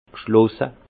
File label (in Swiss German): "gschlosse", Alsace; vin; fermé